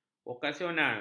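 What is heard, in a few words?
"vokasional", Malay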